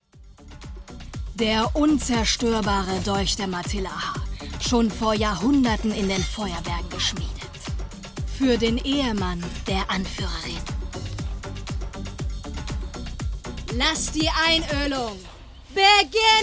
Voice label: Animation

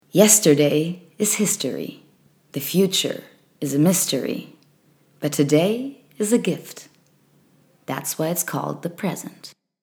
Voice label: english; Englisch; The Present

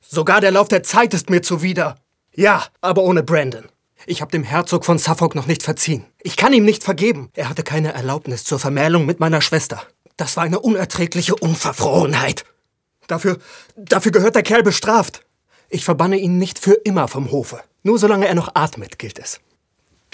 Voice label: Drama; Synchron

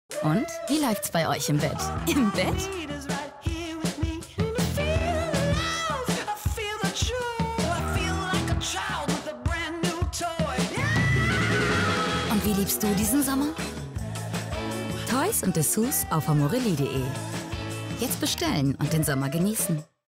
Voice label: TV Spot; Amorelie; Sommer

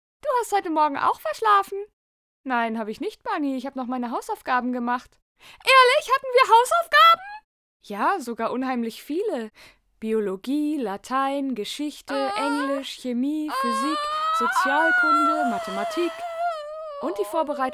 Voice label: Anime Charge

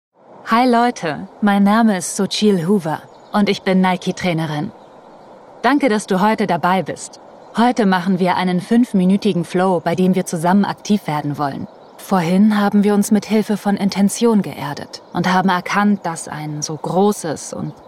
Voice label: VoiceOver

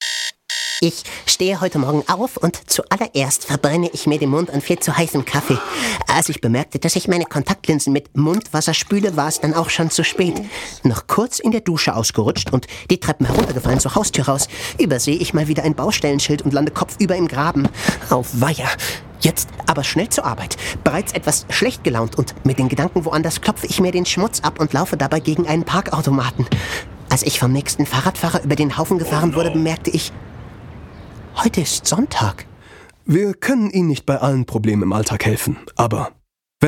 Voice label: Comic

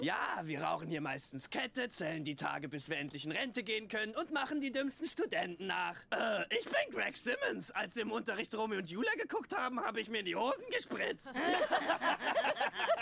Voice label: Animation; Solar Opposites

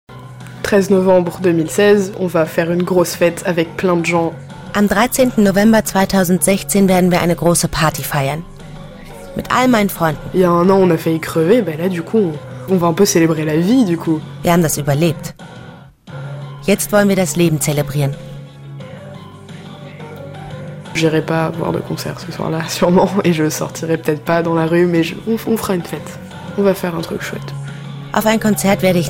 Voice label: Voice Over

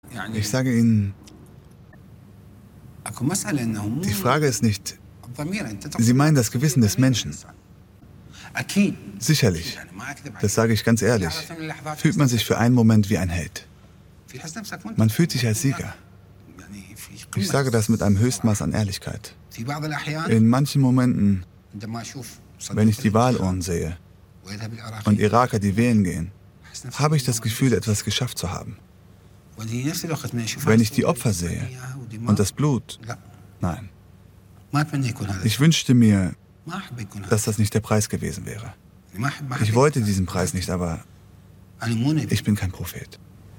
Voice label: Voice over

